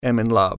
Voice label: "I am in love" male, English